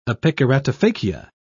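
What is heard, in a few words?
"epikeratophakia", British English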